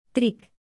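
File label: "trick", English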